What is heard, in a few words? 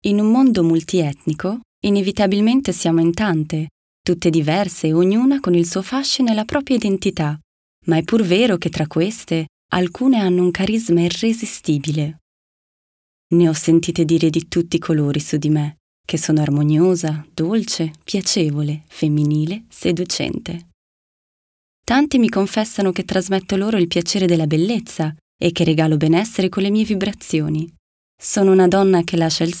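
IT Commercial, Italian; GoLocalise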